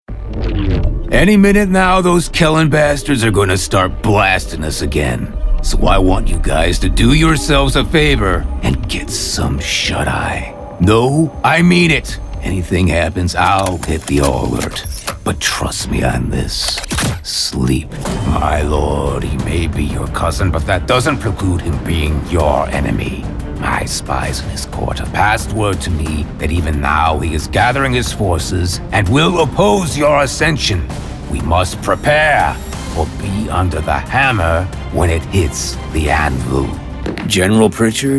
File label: vo interactive